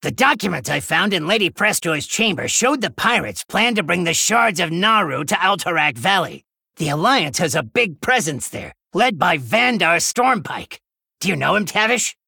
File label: Male Gnome Boss Reveal